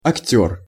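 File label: "akter", Russian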